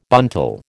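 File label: "buntal", American English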